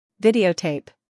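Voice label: "humor", British; videotape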